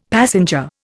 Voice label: "passenger", Indian; Dictionary

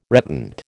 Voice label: "reptant", American

